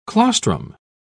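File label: "claustrum", English, uk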